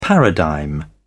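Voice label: "paradigm", British English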